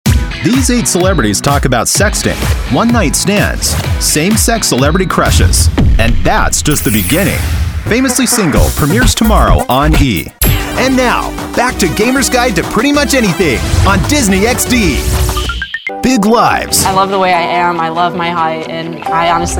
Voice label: promo

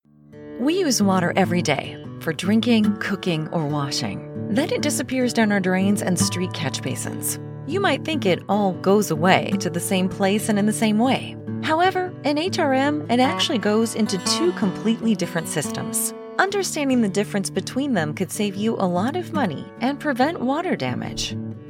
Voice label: Narration

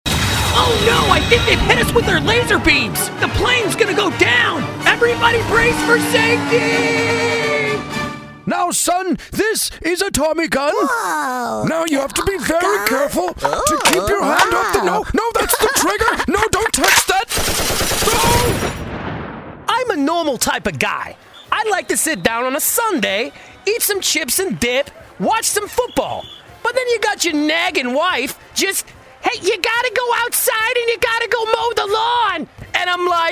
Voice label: ANIMATION

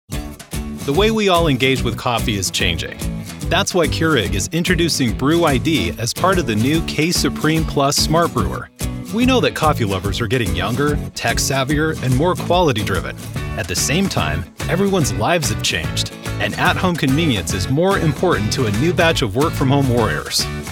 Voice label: CORPORATE NARRATION

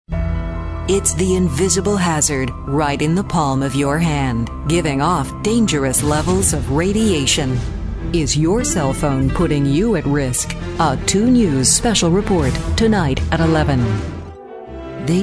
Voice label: promo

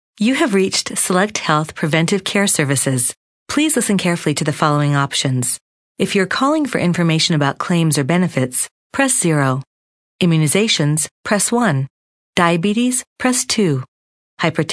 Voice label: ivr

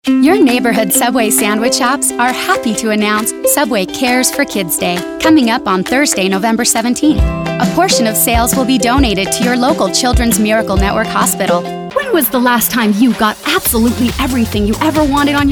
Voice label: Commercial

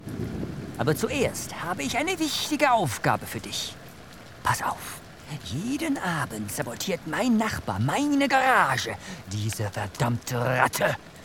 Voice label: German VO

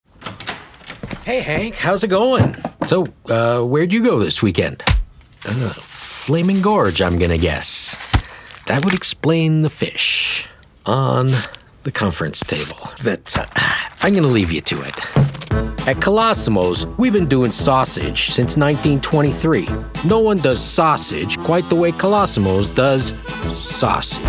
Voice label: Commercial

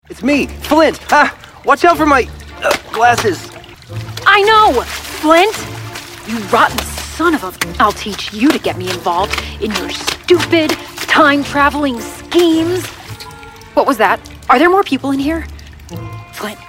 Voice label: Audiobook Excerpt, Voiceover Female

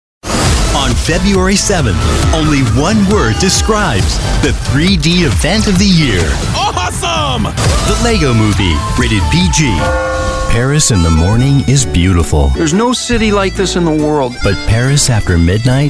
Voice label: trailer

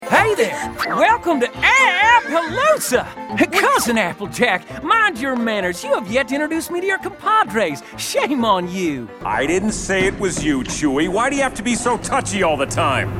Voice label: Animation

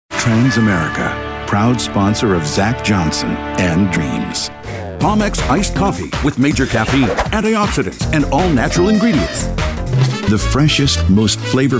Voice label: Commercial

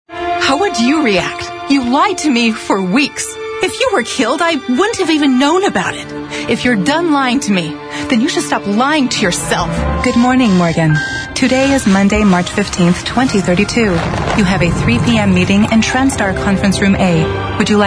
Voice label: Animation

